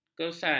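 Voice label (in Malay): "kesan", Malay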